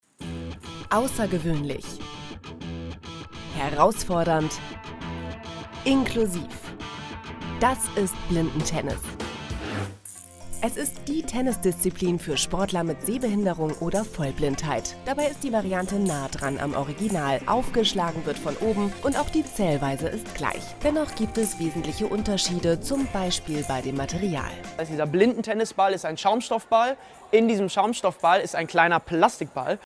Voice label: Editorial TV